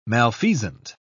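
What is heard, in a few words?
"malfeasant", English, uk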